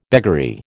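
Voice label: "beggary", American English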